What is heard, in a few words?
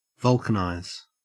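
"vulcanize", Australian English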